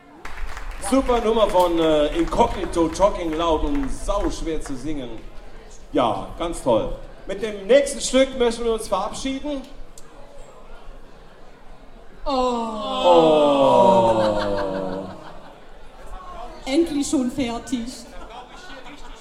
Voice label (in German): Ansage, Konzert; Boemundhof, Saarburg